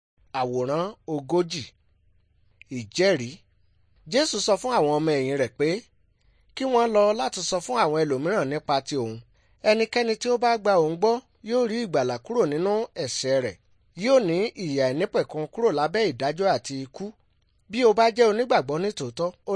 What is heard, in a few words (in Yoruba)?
good news yoruba